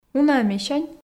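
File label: "уна-ӧ месянь", Komi, female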